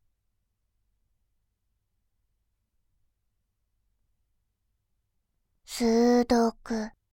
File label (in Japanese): 数独, バイノーラル左, ASMR